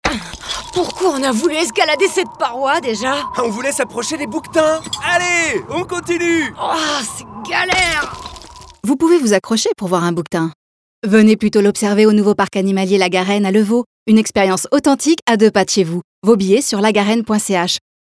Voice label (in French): campagne communication spot radio; la garenne; bouquetin